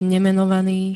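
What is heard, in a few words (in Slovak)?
"nemenovaný", Slovak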